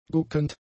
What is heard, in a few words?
"guckend", German; adjectives